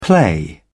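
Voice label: "play around", British English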